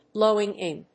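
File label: "blowing in", English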